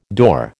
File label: "door", American